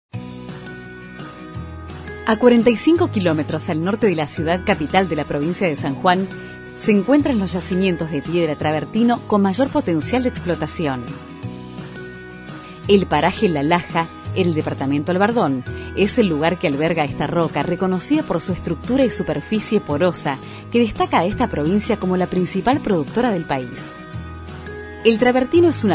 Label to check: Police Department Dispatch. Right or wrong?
Wrong